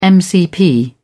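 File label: "mcp", British English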